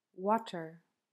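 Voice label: "water", English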